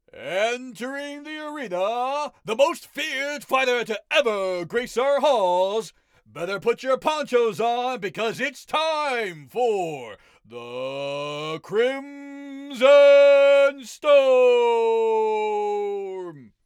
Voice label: Video Game Sample announcer

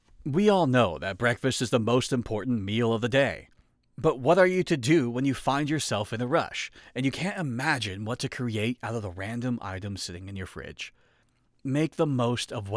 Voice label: Commercial Conversational

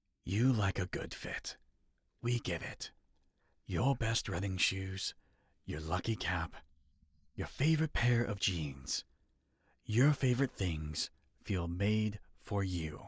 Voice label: Commercial sample Warm, Voice Actor

